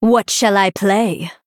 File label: Female Night Elf